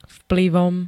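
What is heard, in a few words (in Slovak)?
"vplyvom", Slovak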